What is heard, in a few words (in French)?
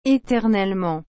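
"eternellement", French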